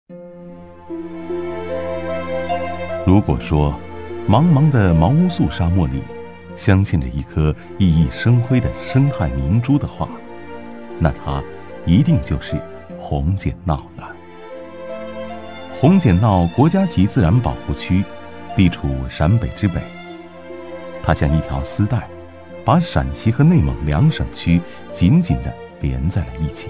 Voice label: 男 景区宣传片 深情讲解; 稳重磁性; 深情感人; 红碱淖

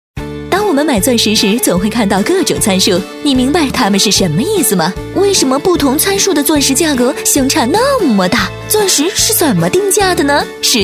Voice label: 女 广告配音 钻石-俏皮可爱, 幽默搞怪

